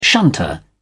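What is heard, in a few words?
"shunter", British English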